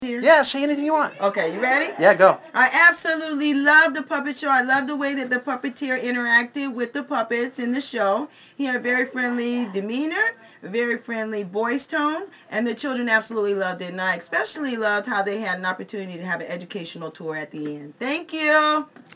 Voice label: Child Care Owner Testimonial